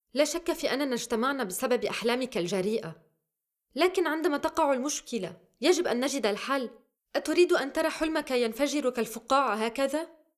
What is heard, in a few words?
Drama